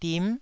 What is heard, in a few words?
"dim9", Taiwanese syllable